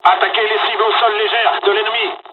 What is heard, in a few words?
ground attack, dialogs french; midway; War Thunder